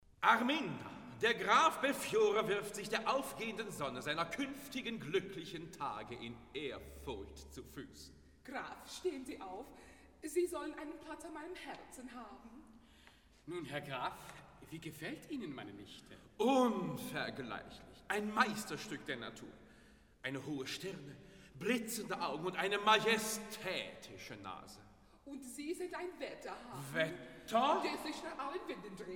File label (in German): Dialog; Arminda, der Graf Belfiore; Die Gärtnerin aus Liebe